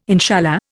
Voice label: "inshallah", Indian